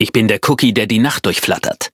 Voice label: loading, de; Cookie Run